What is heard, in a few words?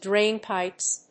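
"drainpipes", English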